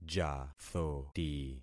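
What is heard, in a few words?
"jathoti", concatenated syllables; Affixing experiment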